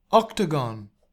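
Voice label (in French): "octogone", French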